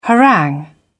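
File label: "harangue", British English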